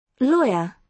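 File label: "lawyer", British English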